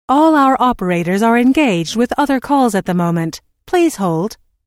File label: All Our Operators Are Engaged With Other Calls At The Moment Please Hold, Telephone prompt